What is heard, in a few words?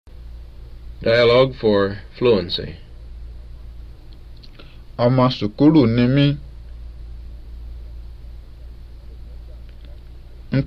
Yoruba; FSI; Basic